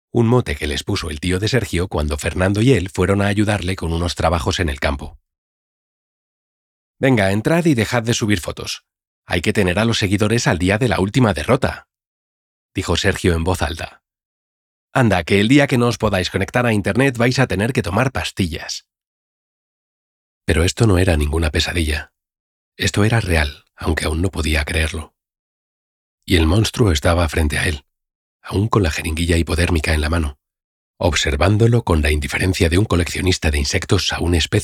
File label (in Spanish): Audiolibro; ¿Necesitas una voz?